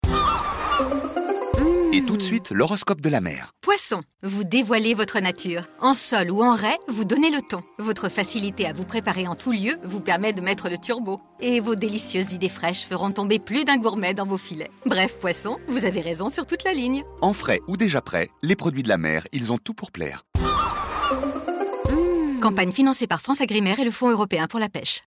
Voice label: spot radio; FranceAgriMer